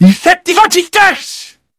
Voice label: jeers; sniper